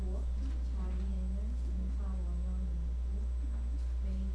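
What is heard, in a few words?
"book", spoken word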